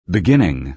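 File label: "beginning" American English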